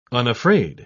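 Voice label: "unafraid", British English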